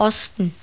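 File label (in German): "osten", German; CD-ROM Magazin 1996 September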